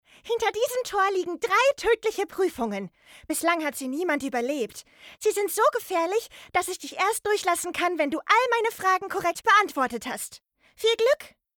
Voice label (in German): Trickstimme